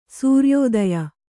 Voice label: "ಸೂರ್ಯೋದಯ", Kannada